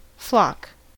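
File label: "flock", US English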